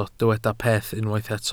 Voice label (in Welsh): "peth" Welsh